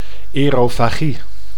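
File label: "aerofagie", Dutch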